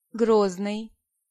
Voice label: "Grozny", Pronunciation